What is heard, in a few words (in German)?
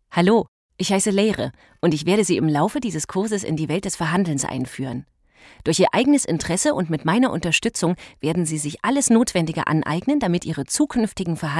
deutsch frauen elearning; sprecher